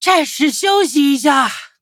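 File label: level up, Traditional Chinese; cookie run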